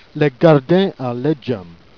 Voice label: "gardin à l'edge un mes", Jèrriais

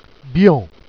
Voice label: "blianc", Jèrriais

